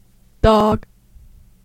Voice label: "dog", en_GB; tdeedu; klettres

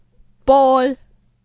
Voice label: "ball", en_GB; tdeedu; klettres

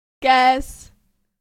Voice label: "gas", en_GB; tdeedu; klettres

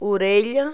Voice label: "orelha", pt; ktuberling; tdegames

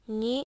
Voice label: "gni", it; klettres; tdeedu

